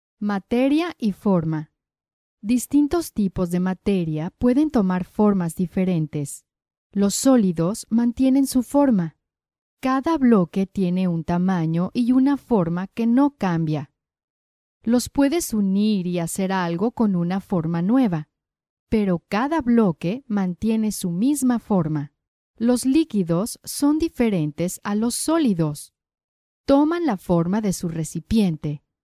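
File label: Spanish Latin America female narrative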